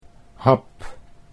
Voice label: "hap", Dutch